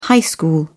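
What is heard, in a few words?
"high school", English, British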